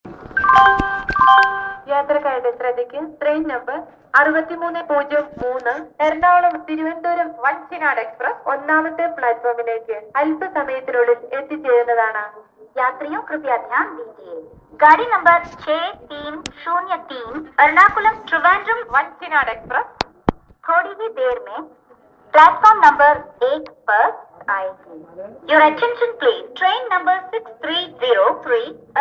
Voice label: railway announcement; ringtones

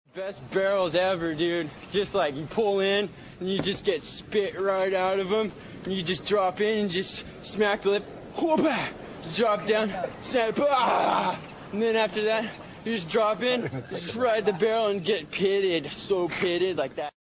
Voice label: surf talk

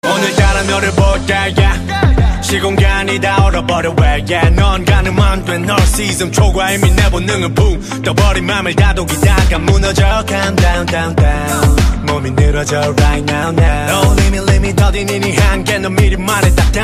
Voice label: Whisper

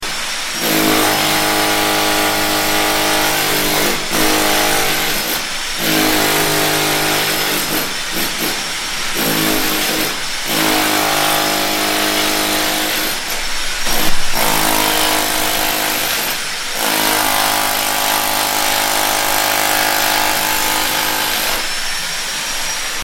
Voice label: Drill Instructor

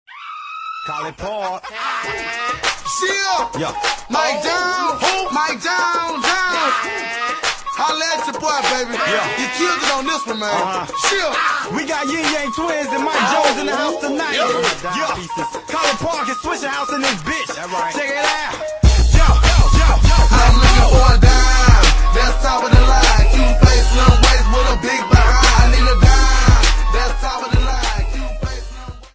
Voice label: begging

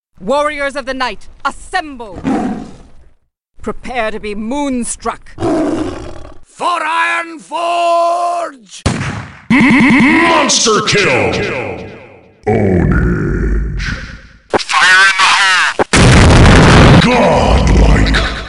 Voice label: hero voice

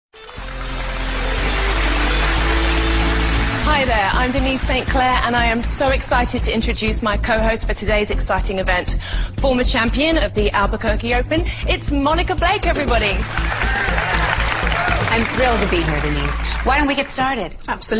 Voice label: funny naughty commercial; clean your balls

